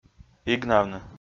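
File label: "игнавны", Komi, male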